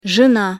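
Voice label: "zena", Russian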